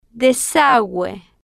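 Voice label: "desague", slow, Spanish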